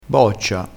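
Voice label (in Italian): "boccia", vocabolario dialettale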